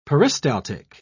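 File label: "peristaltic", British English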